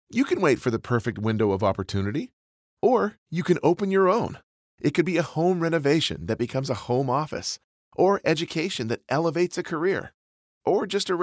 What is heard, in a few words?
Natural Conversational